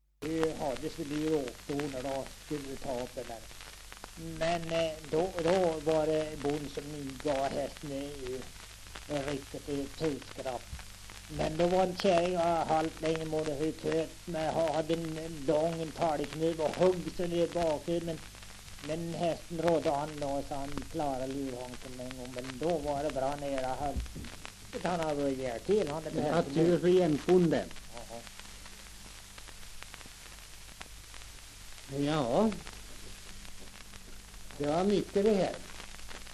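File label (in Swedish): Tuna Medelpad, dialekter, svenska sagor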